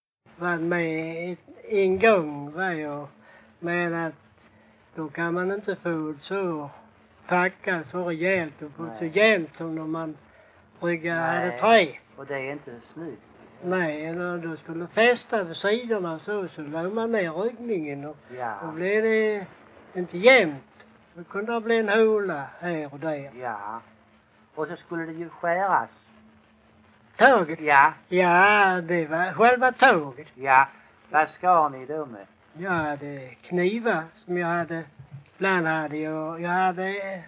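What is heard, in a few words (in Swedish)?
Bosjökloster, Swedish dialect; Folkminnen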